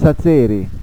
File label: "sazeri", Carigliaro; Suono chiuso